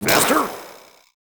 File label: faster question; samurai Slice Ntr